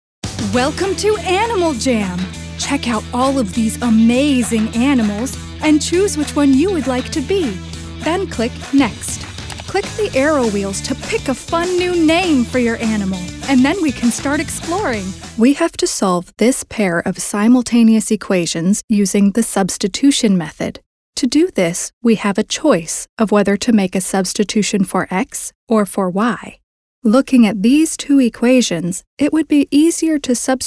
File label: Narration